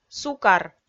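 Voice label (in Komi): "сукар", Komi, female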